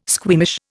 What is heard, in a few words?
"squeamish", Indian